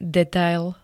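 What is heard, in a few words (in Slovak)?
"detail", Slovak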